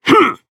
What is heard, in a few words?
Voice attack jp